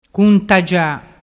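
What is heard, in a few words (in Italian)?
"cuntagia", Vernazzese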